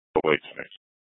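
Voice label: Scottsdale Police South Dispatch; Scttsdle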